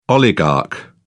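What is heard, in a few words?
"oligarch", British English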